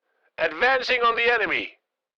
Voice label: Military radio voice advancing on the enemy

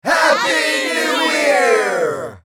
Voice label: Mixed crowd happy new year; celebration

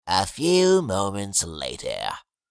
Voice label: A few moments later meme voice male; social media; Meme Sounds

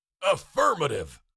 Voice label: Cartoon lion voice affirmative

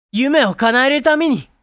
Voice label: Make my dreams come true anime boy character

